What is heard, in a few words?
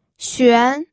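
"xuan2", Mandarin Chinese pinyin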